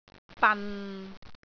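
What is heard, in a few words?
"ban6", Cantonese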